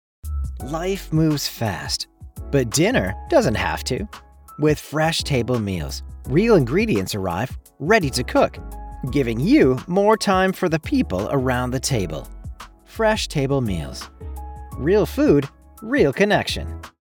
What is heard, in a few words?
Fresh Table Meals w music